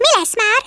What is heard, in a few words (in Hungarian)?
"come on then", Hungarian; Worms Armageddon